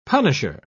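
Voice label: "punisher", British English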